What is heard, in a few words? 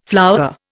"flowerer", Indian